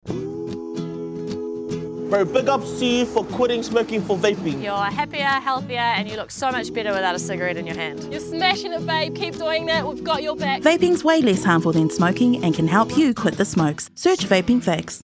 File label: RADIO; Health